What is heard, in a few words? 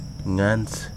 "skin", Wahgi; lae unitech